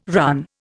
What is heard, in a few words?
"run", Indian